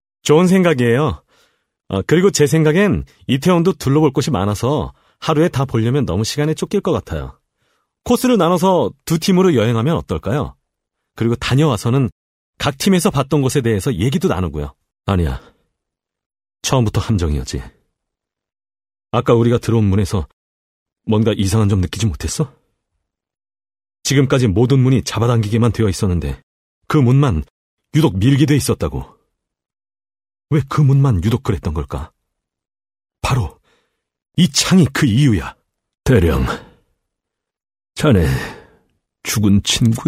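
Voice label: Korean male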